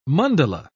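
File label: "mandala", British English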